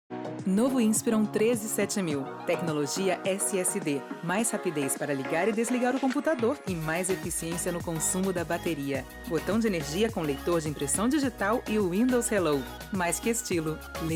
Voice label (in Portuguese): Publicidade Português